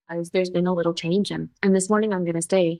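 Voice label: Voice Acting es, female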